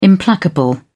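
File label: "implacable", British English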